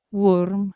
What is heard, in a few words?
"worm", Anglo Saxon